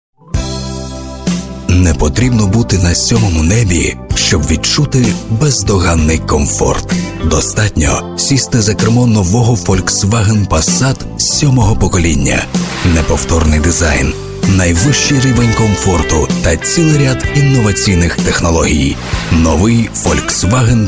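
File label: ukrainian male commercial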